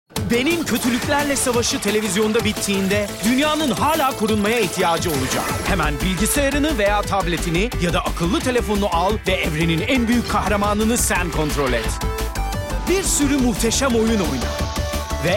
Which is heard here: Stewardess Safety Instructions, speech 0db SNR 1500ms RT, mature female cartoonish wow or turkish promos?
turkish promos